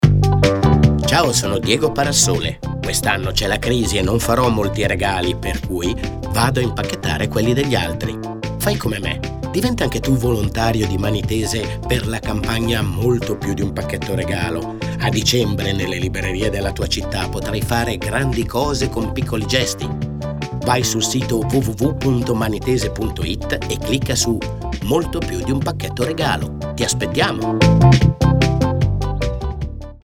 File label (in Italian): spot